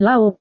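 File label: "laut", Indonesian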